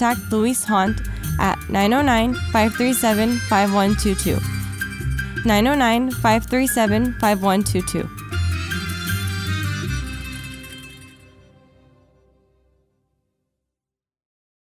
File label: Arabic Promo Radio; Coyote Radio